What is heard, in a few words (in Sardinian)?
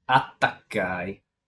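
"Atacai", Sardinian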